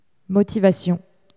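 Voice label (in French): "motivation" French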